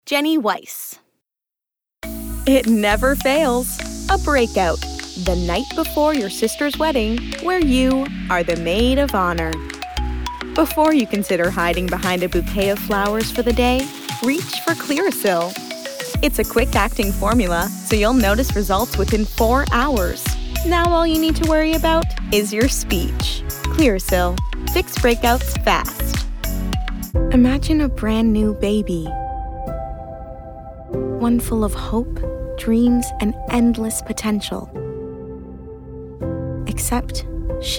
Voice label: commercial